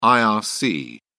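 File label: "irc", English, British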